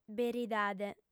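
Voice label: "beridade", female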